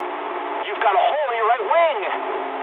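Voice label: English right wing mood med; War Thunder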